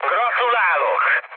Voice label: player killed enemy, mood high, speech hun

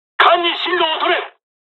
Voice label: dialog, dialogs japanese; War Thunder

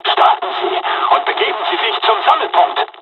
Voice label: takeoff, dialogs german; midway jpn; War Thunder

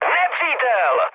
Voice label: enemy detected, speech cze, mood low; War Thunder

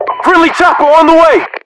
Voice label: friendly heli, US English

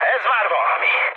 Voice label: trick, speech hun; War Thunder

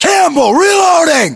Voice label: inform reloading, english, us; localized english; battlechatter

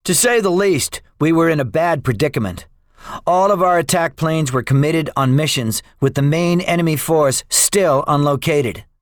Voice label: debriefing, english; guadalcanal; War Thunder

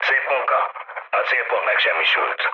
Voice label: bomb success, mood med, speech hun; War Thunder

